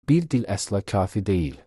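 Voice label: one language, Azerbaijani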